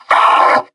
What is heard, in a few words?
metrocop zombie pain; scmod